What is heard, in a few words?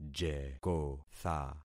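"jekotha", concatenated syllables; Affixing experiment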